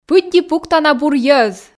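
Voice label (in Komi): пыдди пуктана бур йӧз, Komi, female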